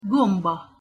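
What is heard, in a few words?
"gomba", Hungarian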